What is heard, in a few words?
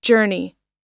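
"journey", English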